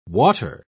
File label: "water", pronounce